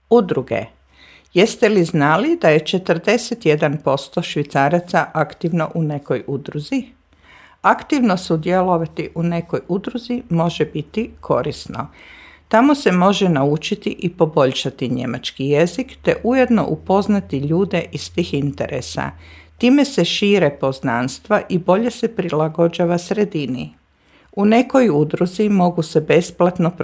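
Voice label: Croatian; vereine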